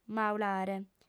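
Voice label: "maulare" Sardinian, female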